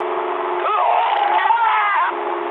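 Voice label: English damaged pilot mood low; speech; War Thunder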